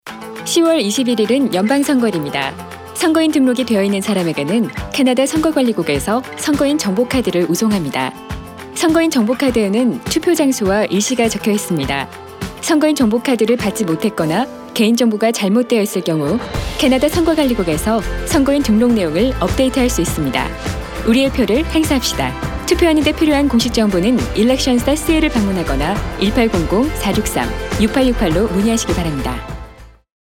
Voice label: Korean, radio advert; Voter information Card

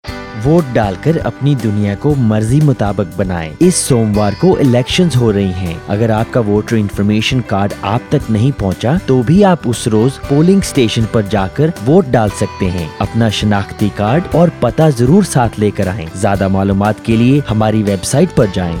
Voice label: election urdu

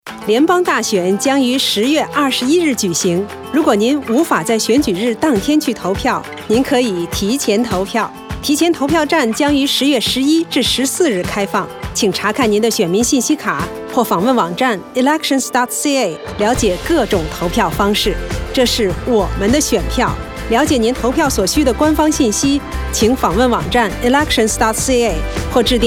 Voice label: Mandarin, radio advert; Early Voting Option

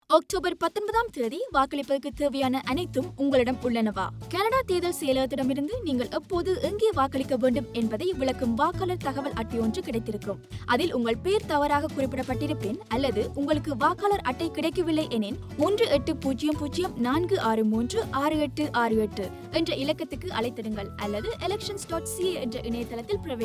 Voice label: tamil

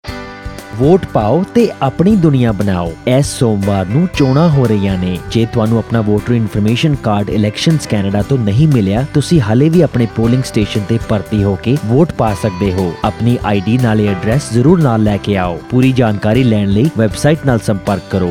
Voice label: election punjabi, radio ad

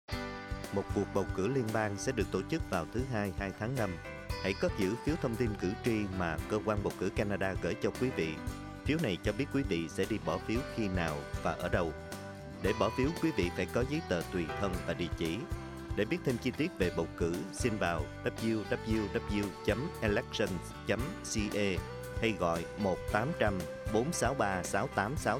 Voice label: vietnamese, radio ad

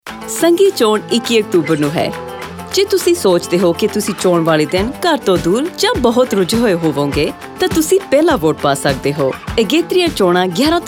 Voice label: Punjabi, radio advert; Early Voting Option

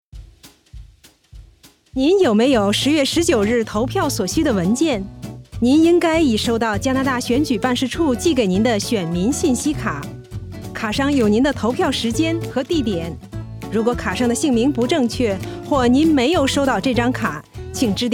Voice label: Mandarin

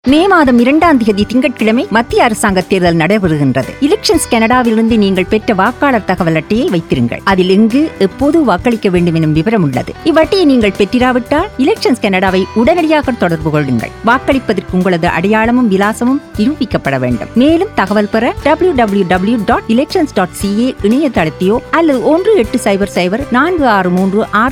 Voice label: tamil

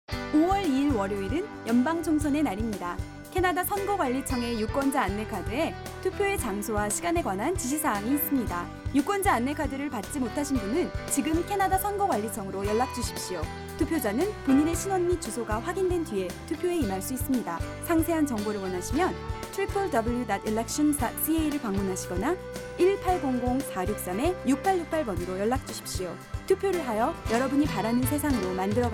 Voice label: korean

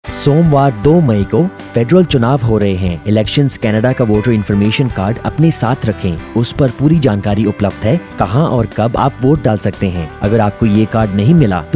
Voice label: hindi

